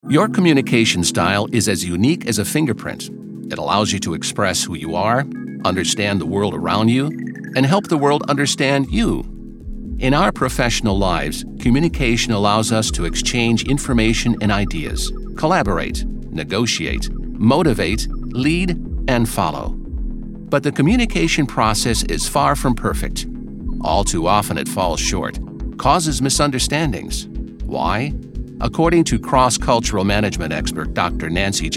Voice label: 50s Male Narration